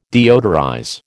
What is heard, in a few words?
"deodorize", American